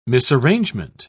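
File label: "misarrangement", British English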